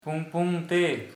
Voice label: "make swell", Sora